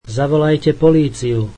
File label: Call the police, slovak